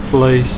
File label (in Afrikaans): "vleis", Afrikaans